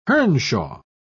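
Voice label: "hernshaw", British English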